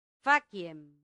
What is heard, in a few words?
"faciem", Latin; santillana